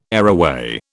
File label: "areaway", British